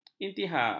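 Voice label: "intiha", Malay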